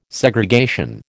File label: "Segregation", American